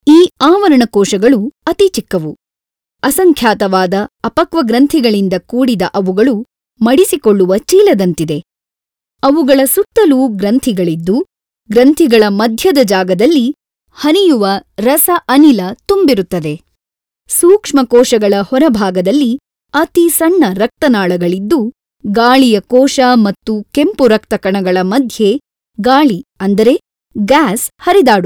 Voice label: e learning Kannada

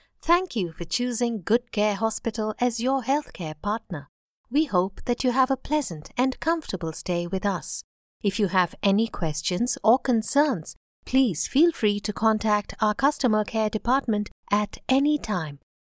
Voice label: IVR US English

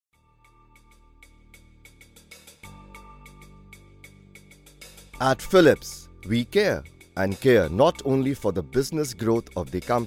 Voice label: eng; philips

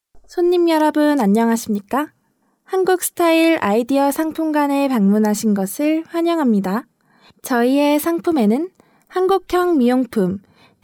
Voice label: FEMALE KOREAN

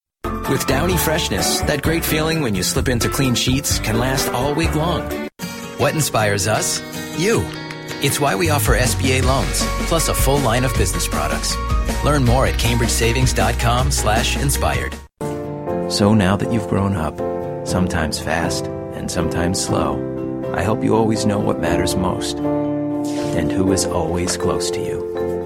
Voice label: commercials, newzealand english

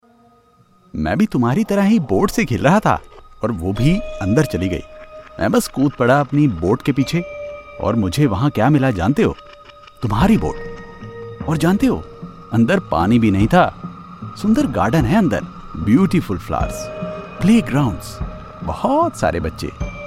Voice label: Horror Hindi

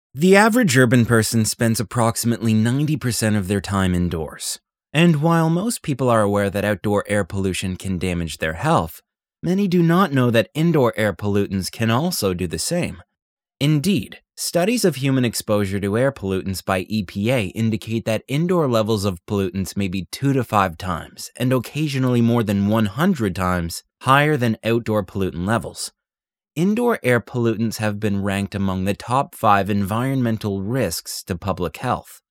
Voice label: narration us

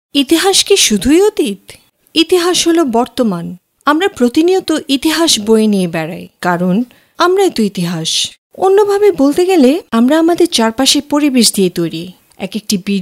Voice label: narration bangla

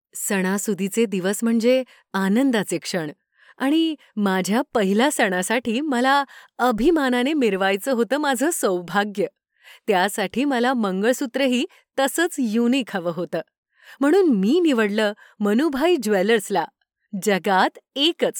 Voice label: Marathi

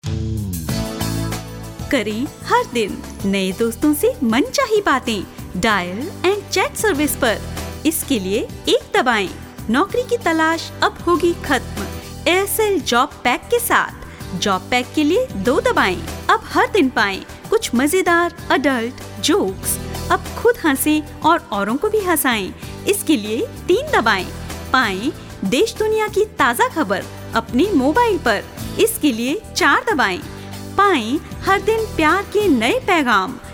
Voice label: ivr hindi

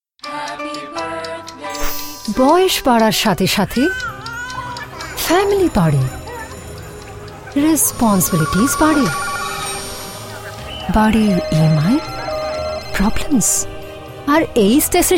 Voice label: promo bengali; Young Adult

